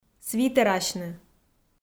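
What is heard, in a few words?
"свитерасьны", Komi, female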